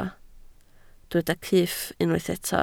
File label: "cyff", Welsh